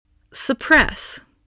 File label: "suppress", English